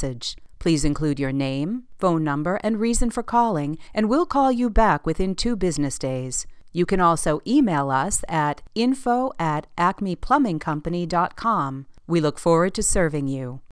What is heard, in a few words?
IVR after hours